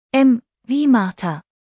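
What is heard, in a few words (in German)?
"martha", German; buchstabierung alt; M wie Martha; Buchstabieren Sie bitte!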